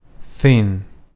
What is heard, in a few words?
"thin", synth